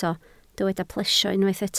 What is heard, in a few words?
"plesio", Welsh